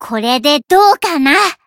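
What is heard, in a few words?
Voice limit break, Japanese; white nopou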